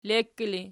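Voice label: "lekki" ergative, Archi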